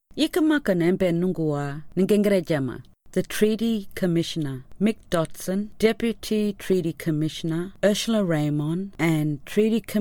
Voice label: Anindilyakwa